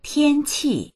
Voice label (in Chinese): "tian1qi4", voices; tiānqì; 天气; 天気